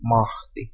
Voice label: "mahti", Proto-Germanic